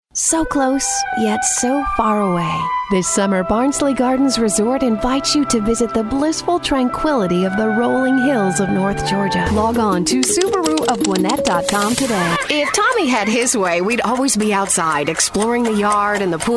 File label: Commercial